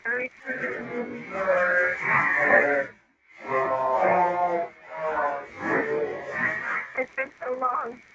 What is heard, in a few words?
male voices